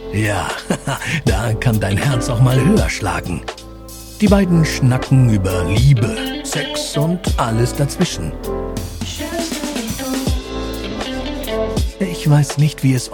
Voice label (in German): PODCAST INTRO; Neoliebismus